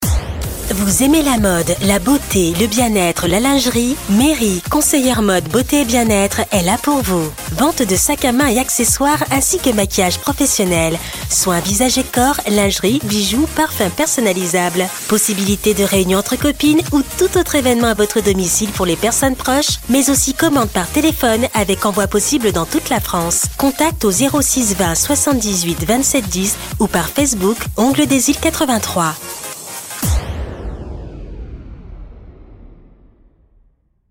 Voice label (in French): accueillant amical promo SPOT PUBLICITARE RADIO; ONGLES DES ILES 83